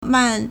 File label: "man4", Chinese